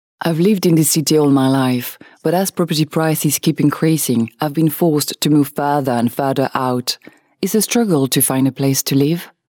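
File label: French, Accented English; GoLocalise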